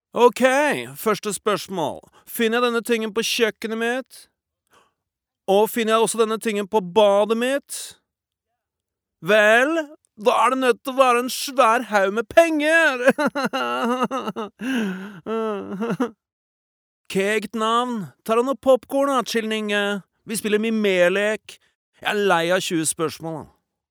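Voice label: Norwegian dub snobb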